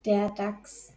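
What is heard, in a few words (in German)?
"Dachs", German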